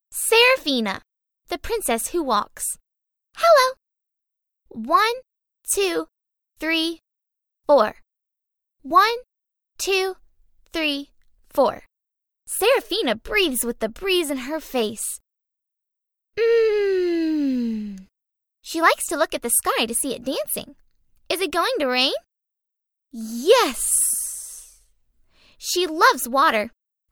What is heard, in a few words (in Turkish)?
Amerikan Ingilizcesi Kiz Cocuk; seslendirme